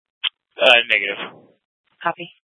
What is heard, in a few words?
Dispatch, scanner; Mesa PD; 4 Superstition Dispatch